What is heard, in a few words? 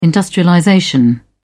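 "industrialization", British English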